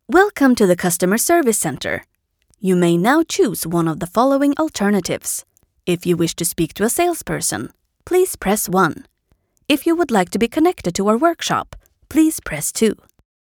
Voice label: female swedish english with swedish accent ivr